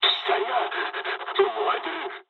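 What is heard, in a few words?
on fire mood med, speech japanese; War Thunder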